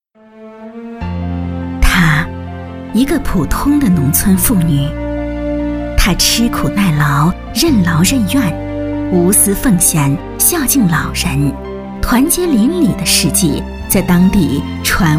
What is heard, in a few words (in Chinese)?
女, 专题, 抒情, 人物介绍